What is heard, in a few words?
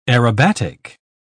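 "aerobatic", British English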